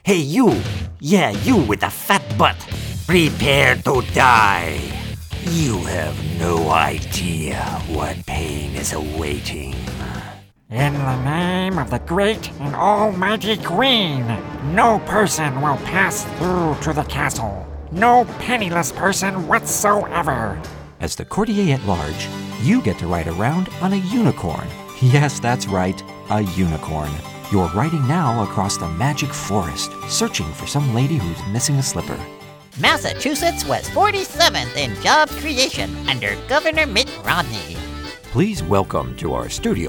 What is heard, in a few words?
video game characters